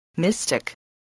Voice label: "mystic", voice

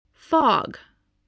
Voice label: "fog", spelling

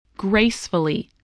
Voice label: "gracefully", spelling

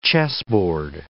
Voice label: "chessboard", English

